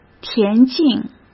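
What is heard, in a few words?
"田径", Mandarin Chinese; chinese flashcards; hsk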